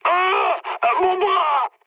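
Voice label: French damaged pilot mood med; War Thunder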